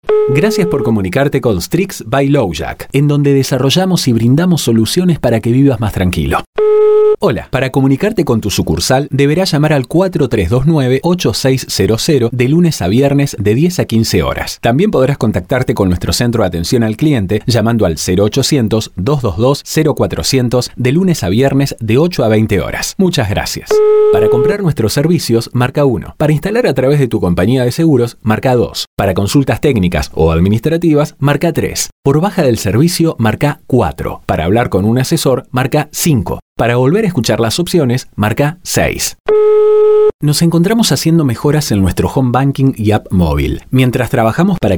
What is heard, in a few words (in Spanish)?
ivr rioplatense